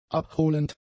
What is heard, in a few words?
"abholend", German; adjectives